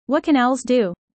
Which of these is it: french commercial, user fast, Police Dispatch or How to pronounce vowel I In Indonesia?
user fast